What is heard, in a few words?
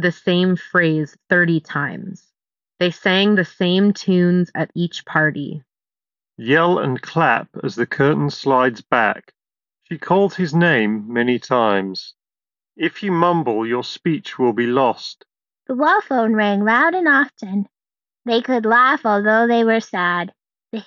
Ideal microphone sample; Nothing Ear